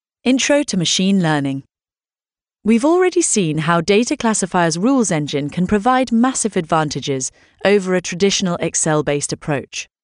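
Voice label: English female; lektoring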